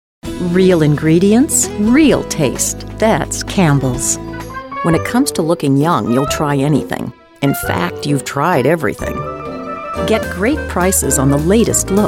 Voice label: Commercial